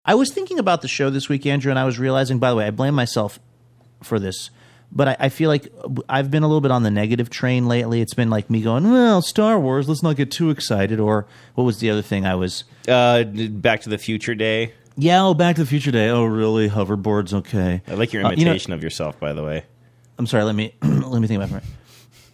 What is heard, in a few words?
negative train impression of himself speaking